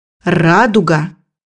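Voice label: "rainbow", Russian; bukvar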